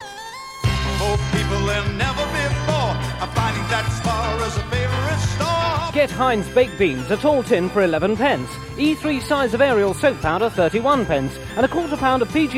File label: Radio Hallam Advert; Spar